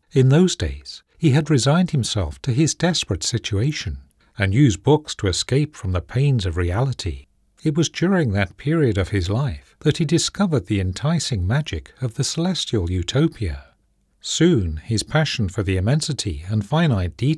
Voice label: SciFi, voiceovers